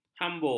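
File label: "hambur", Malay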